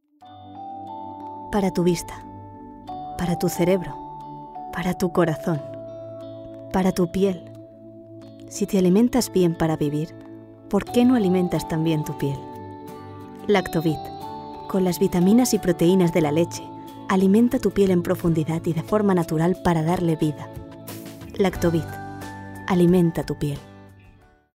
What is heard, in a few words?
female spanish skincare comercial